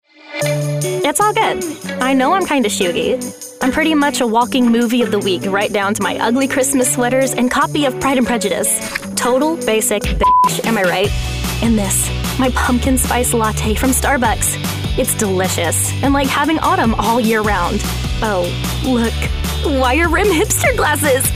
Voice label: Commercial